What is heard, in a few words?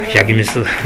"yagimisu", minamiyamagata